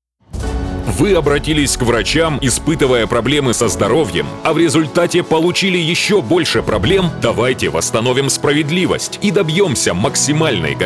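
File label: Russian, male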